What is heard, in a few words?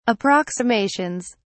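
"approximations", British English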